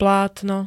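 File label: "plátno", Slovak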